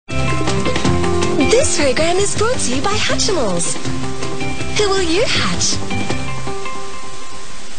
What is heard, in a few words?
TV AD Billboard; Cartoon Network Boomerang; Foxtel; Hatchimals